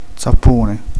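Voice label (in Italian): "zappa", Italian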